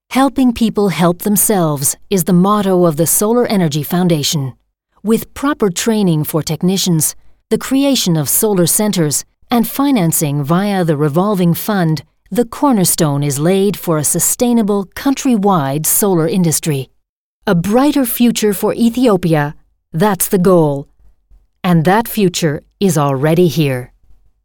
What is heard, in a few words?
documentary